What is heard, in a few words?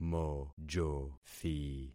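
"mojothi", concatenated syllables; Affixing experiment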